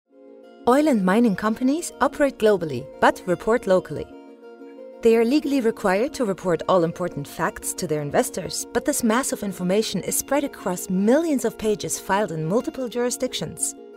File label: EN explainer; Aleph